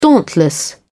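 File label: "dauntless", English, British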